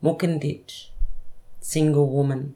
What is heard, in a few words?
"mukundity" single women